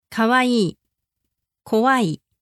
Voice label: "kawaii kowai", Japanese; かわいい; こわい